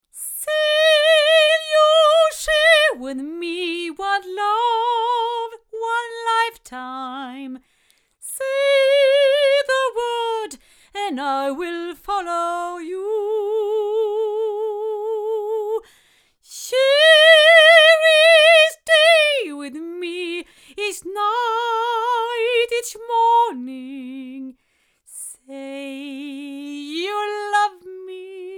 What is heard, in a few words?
cardioid female; studio projects